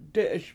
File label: "dE7eS" Snow, Washo